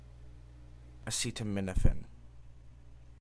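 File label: "acetaminophen", English; pronunciation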